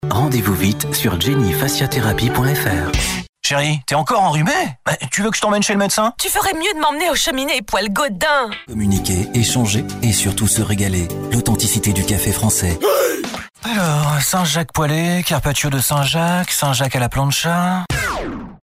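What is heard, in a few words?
french male, voice samples commercial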